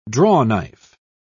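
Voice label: "drawknife", British English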